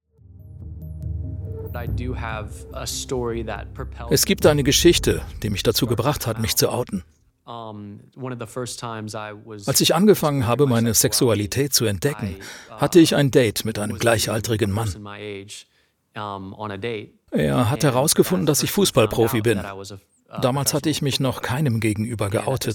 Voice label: Doku VO; Das letzte Tabu